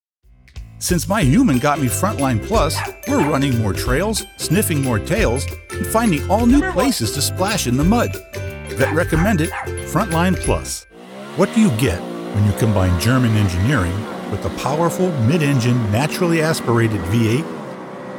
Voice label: TV Commercial